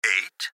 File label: Gps Voice, Male